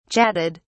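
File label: "chatted", British English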